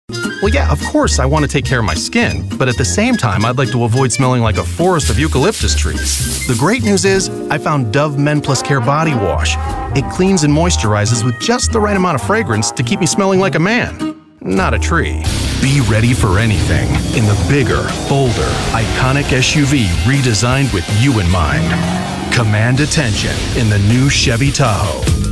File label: Commerical